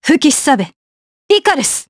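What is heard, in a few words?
Vox Skill Japanese; Isolet; kingsraid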